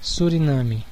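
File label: "suriname", Brazilian Portuguese; gcompris; geography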